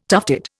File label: "tufted", Indian